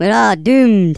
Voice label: OH DEAR, Angry Scots; Worms Armageddon

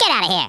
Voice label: "go away", US Sports, speech

